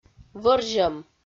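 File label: "вӧрзьӧм", Komi, female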